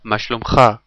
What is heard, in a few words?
how are you in hebrew male